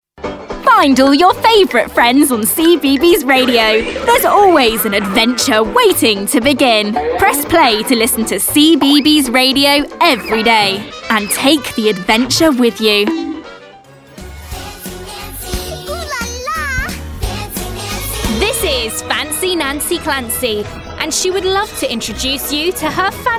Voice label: Childrens continuity

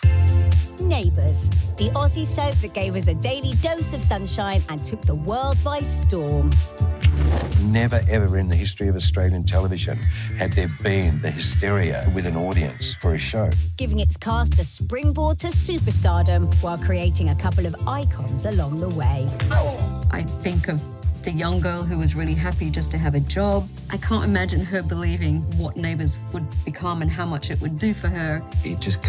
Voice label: Documentary, PLAYFUL LIVELY; NEIGHBOURS MADE ME A STAR

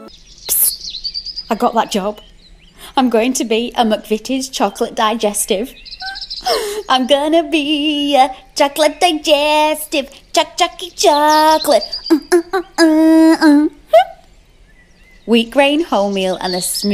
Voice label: Commercial

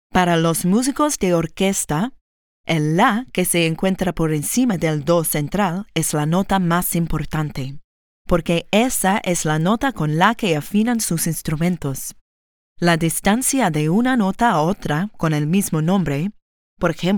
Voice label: Spanish; PIANISTAS

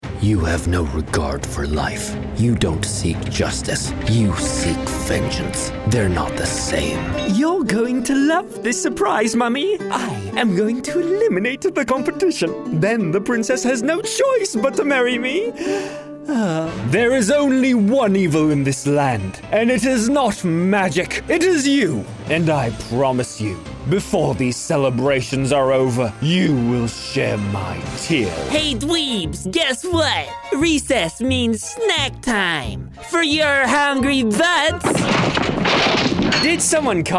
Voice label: Animation

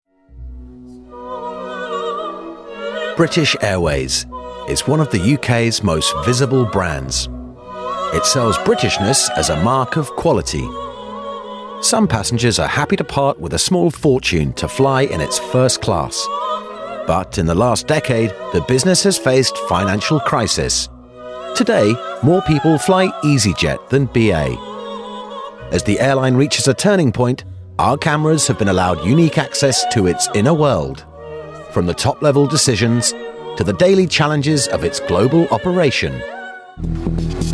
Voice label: Documentary

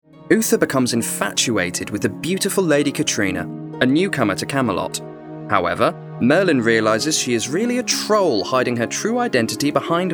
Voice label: Continuity

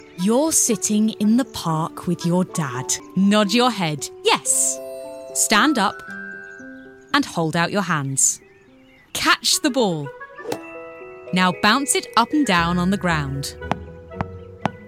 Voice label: Kids presenter

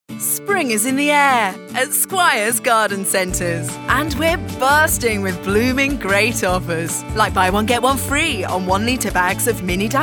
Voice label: Commercial; SQUIRES

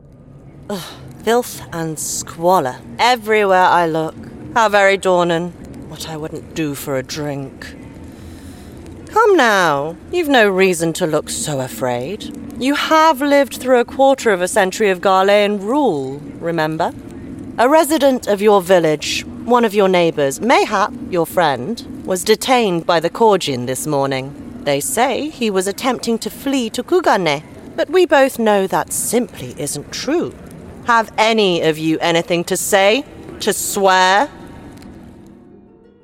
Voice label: Standard RP; Final Fantasy

